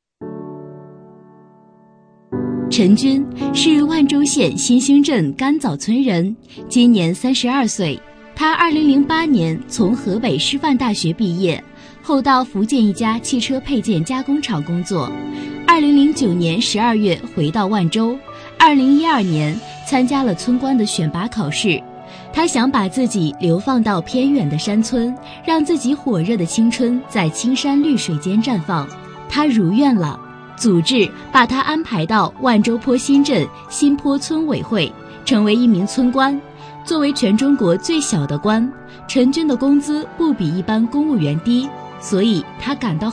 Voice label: 女 专题人物解说

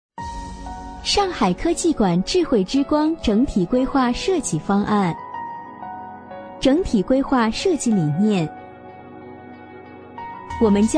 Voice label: 【专题】上海世博会-甜美播音, 女

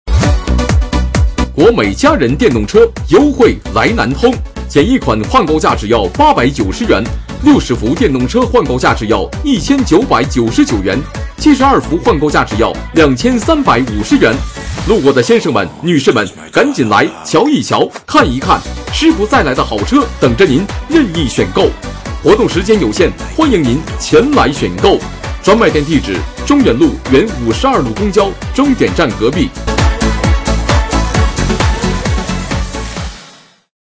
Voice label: 男 促销 商场叫卖广告

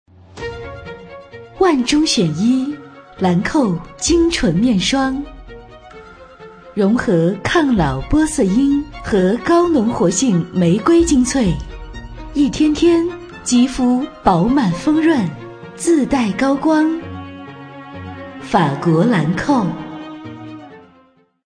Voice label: 女4号品质广告; 兰蔻菁纯面霜

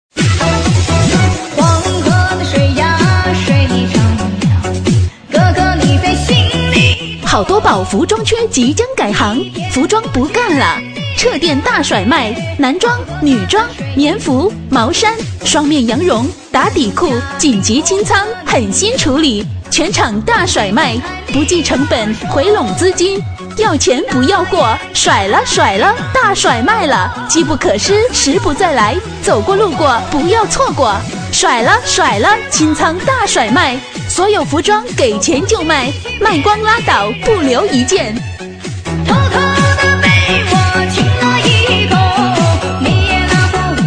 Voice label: 女 促销 改行; 好多宝改行

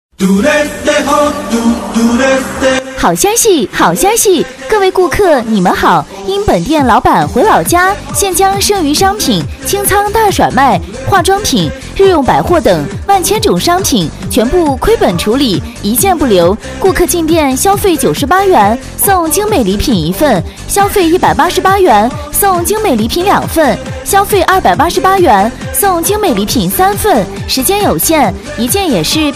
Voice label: 女4号促销; 清仓大甩卖

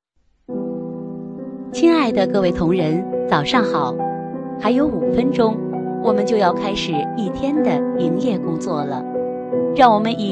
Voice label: 女 商场迎宾语 亲切大气

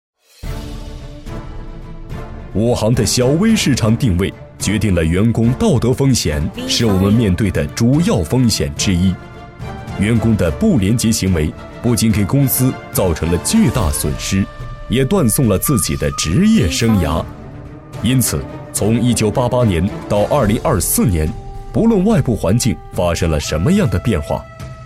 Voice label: 男 严肃 警示; 汇报

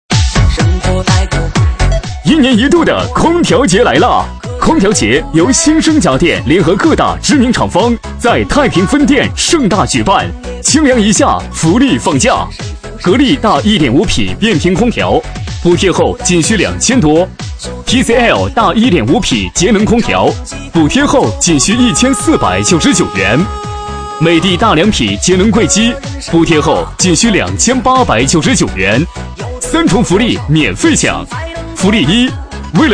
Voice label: 男 促销 空调节, 促销男配

